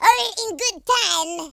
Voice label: "just you wait", French; speech